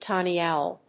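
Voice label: "tawny owl", English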